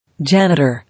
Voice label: "Janitor", English